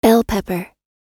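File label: "bell pepper" US English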